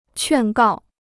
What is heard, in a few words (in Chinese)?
"劝告", Chinese